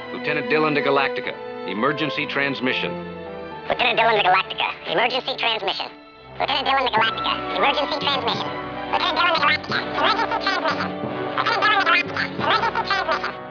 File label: emergency transmission; The Super Scouts